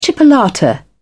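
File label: "chipolata", English, British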